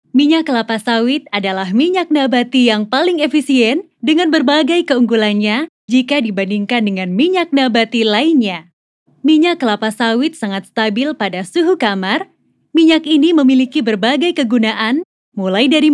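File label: FORMAL Indonesian, The light tone she possesses brightens up the listeners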